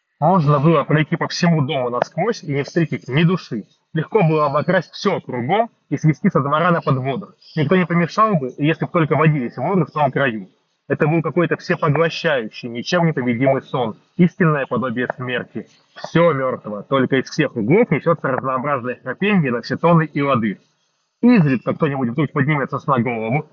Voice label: Mic noise; oneplus nord buds 4